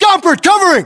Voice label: response covering, battlechatter us